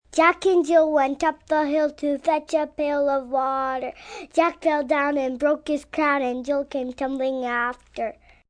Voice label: Rhyme, Nursery rhyme, speaking, child, girl; Jack Jill; Talking; Voice Box